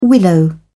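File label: "willow", British English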